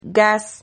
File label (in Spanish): "gaz", Silabas